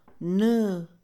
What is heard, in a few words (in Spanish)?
agua, Mixe, Mujer; INALI